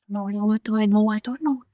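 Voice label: Voice Acting es, female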